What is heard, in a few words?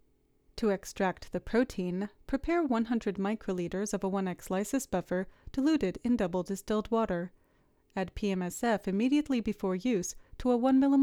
Voiceover